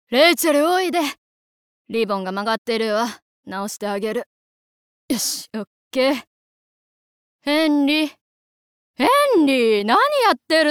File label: Old Lady, 年配の女性